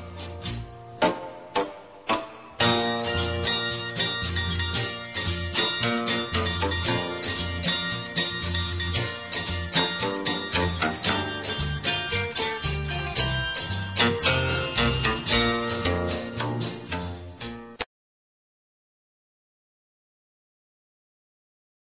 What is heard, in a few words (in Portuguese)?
NATAL NEUTRO M